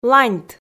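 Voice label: "ланьт", Komi, female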